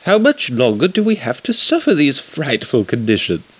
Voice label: frightfull; wanted